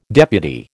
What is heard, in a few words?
"deputy", American